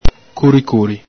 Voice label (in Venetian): "curicuri", Venetian